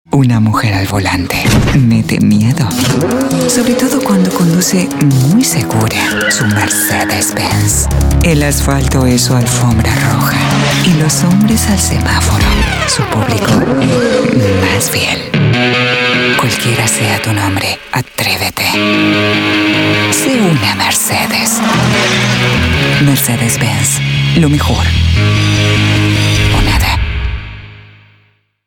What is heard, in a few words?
Latin Spanish; Mercedes Benz